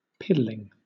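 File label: "piddling", British English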